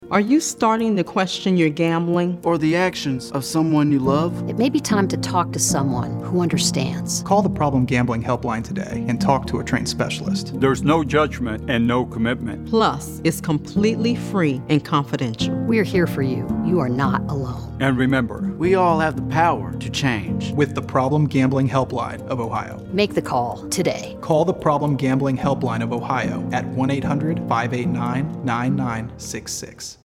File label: Radio PSA; Helpline Toolkit